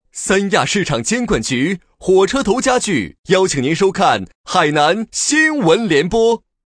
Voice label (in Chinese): 男6号广告